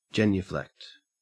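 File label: "genuflect", Australian English; pronunciations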